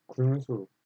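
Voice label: "군수", Korean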